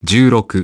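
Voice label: "ten six", English; iOS 4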